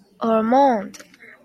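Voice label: "almond", Japanese, female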